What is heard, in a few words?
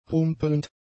"rumpelnd", German; adjectives